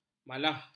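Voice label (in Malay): "malah", Malay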